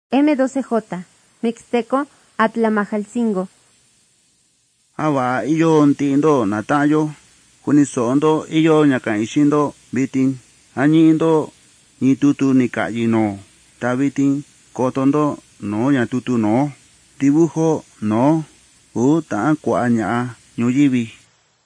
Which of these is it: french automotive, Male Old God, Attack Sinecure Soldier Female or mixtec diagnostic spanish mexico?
mixtec diagnostic spanish mexico